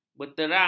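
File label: "berterawang", Malay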